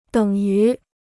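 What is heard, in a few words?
"等于", Chinese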